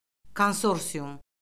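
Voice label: "konsorsium", Azerbaijani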